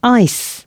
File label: announcements female